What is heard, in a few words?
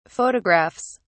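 "photographs", British English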